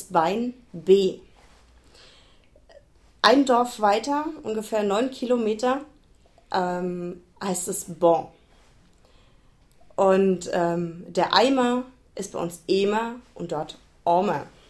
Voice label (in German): dialekt